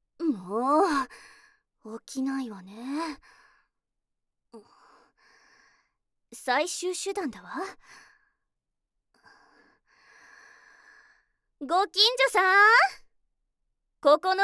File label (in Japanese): システムボイス めざましボイス; 輝夜